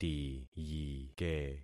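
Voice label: "tiyike", concatenated syllables; Affixing experiment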